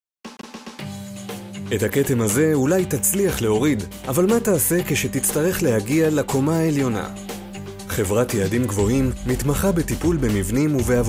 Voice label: Hebrew Compilation, Hebrew Male

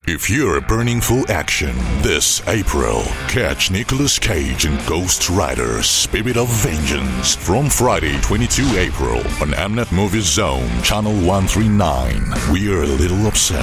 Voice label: African English Promo, African Male; Ghost Rider

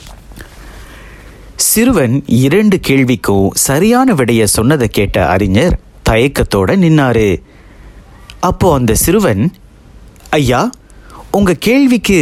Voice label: Tamil SG Clean VO

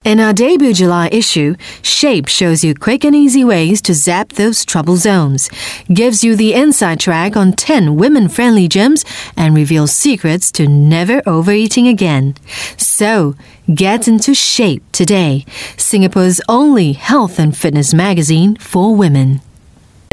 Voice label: Asian English Voice Sample

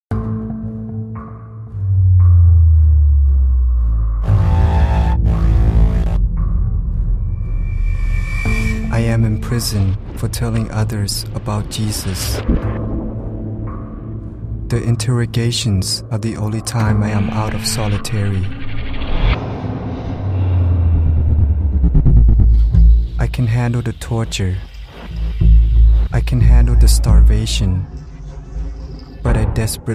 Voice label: MC Thai English Trailer M

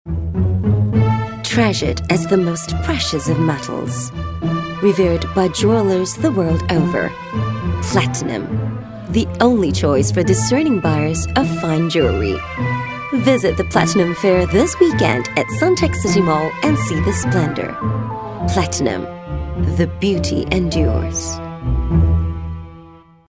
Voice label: Asian English Jewelry

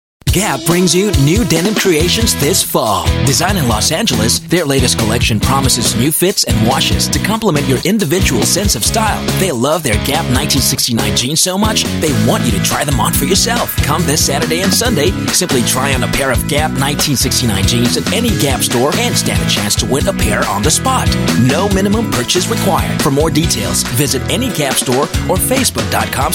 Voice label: Asian English TVC; GAP

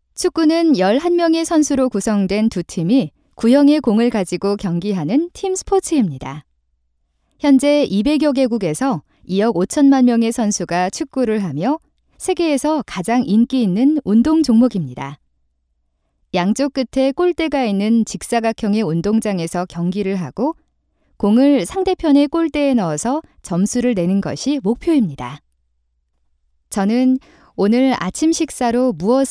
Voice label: Korean Female

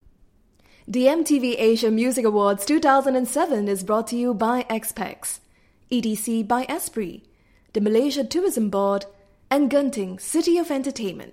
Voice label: Music Awards, English Singaporean Female; MTVAsia

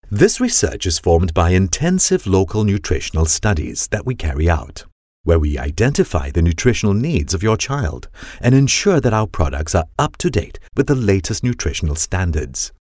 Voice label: Asian English Corporate

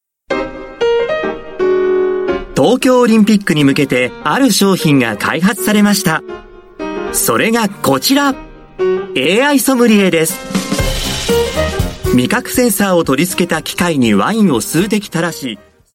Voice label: Japanese Corporate

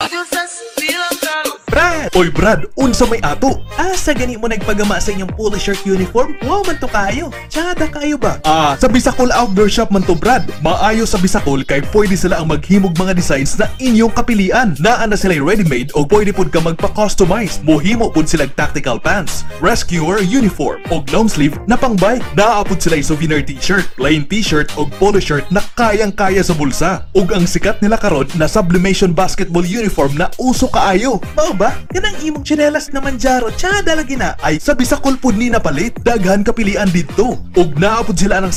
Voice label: Cebuano Voice Sample Male; QC Timog

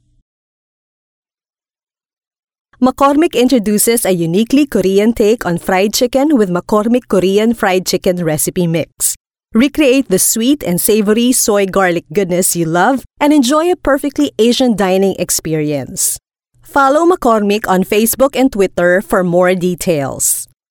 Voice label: Tagalog Accented English

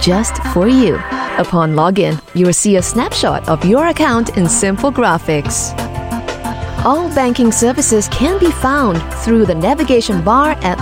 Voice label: Asian English iBanking Introduction

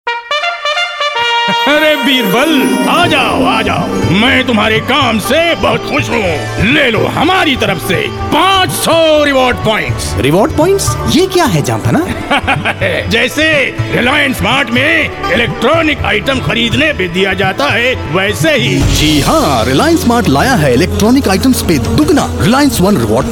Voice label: Urdu Male Radio Spot; India; Reliance Mart

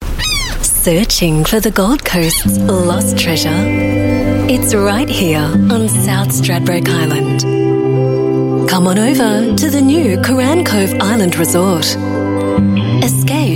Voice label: AU MidAtlantic English, Australian English Female; Couran Cove

